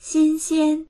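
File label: "xin1xian1", Mandarin Chinese; 新鲜; 新鮮である、めずらしい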